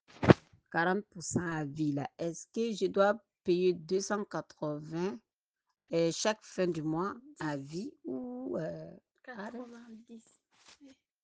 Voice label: voice message